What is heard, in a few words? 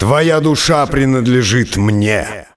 Hero Dreadlord Warcry